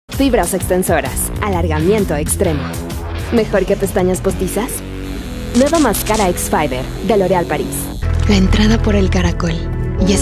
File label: spanish latin american promos